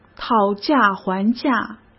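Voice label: "讨价还价", Mandarin Chinese; chinese flashcards; hsk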